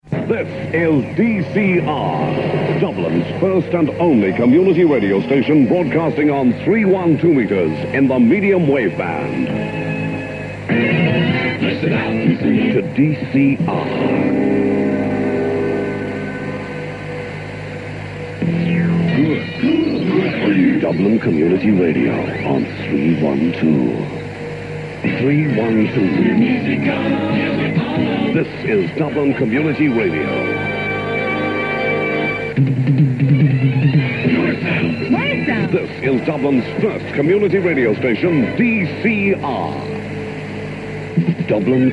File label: jingles; DCR